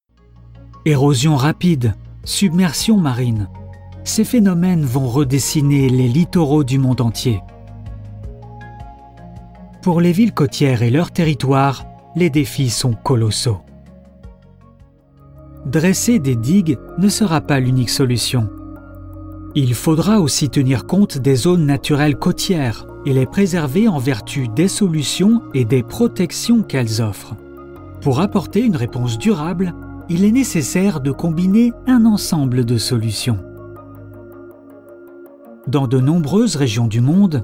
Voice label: FR Narration; Projet Sea ties

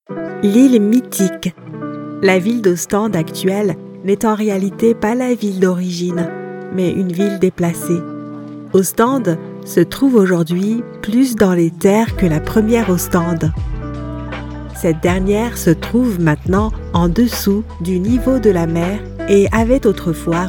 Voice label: guide touristique

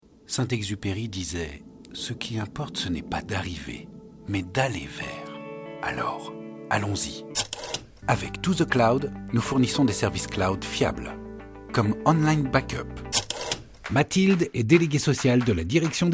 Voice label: Institutionnels

